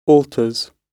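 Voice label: "alters", British English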